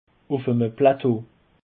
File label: "ufemaplateau", Alsace